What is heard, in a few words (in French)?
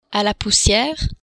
"poussiere", french